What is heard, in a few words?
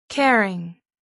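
"caring", English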